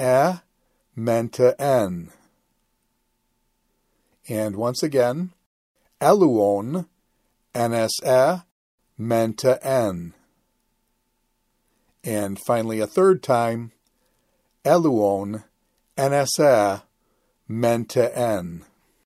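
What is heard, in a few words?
Quick Chant Imperfect Active Indicative of Luw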